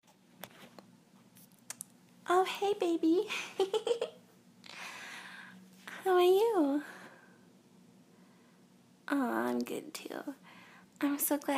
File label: Shy Girl Shy Nervous Kissing; Shy Girl Needs Reassurance; [GFE]; [Kissing Noises]